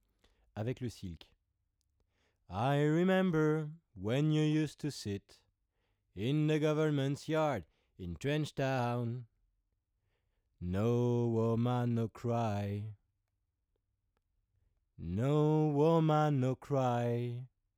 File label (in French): voix c414 preamp avec silk; rupert neve portico